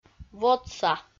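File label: "водса", Komi, female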